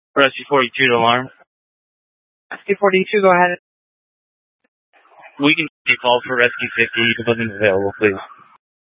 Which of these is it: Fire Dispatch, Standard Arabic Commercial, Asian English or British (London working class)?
Fire Dispatch